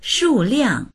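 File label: "shu4liang4", Mandarin Chinese; 数量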